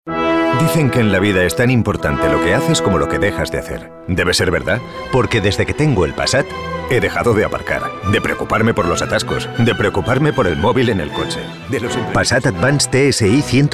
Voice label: male spanish car comercial